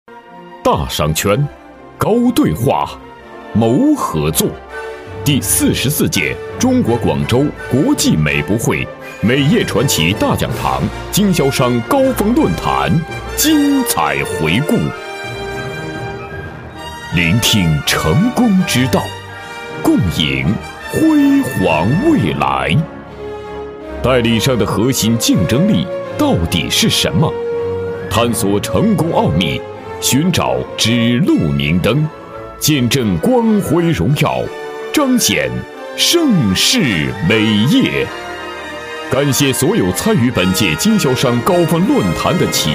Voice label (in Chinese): 男 广告, 国语男声配音; 高峰论坛